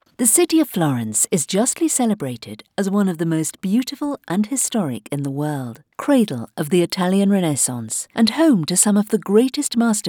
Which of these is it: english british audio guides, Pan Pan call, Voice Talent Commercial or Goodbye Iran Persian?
english british audio guides